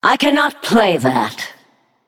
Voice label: Female Naga ERROR PLAY